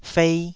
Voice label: "fei2", Taiwanese

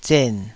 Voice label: "zen3", Taiwanese

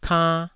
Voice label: "kann2", taiwanese syllables

